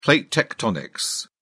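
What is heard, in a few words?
"plate tectonics", British English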